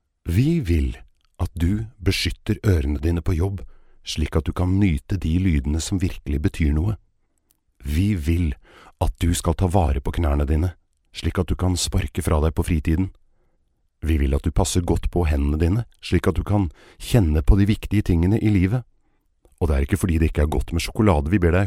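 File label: Norwegian, male, narration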